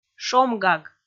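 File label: "шомгаг", Komi, female; kpv audio dict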